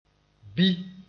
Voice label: "bi", mos; sorrel grains